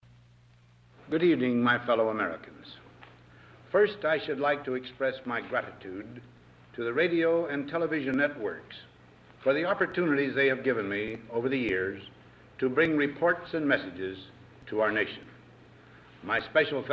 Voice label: test call audio; telecom; Android; CtsVerifier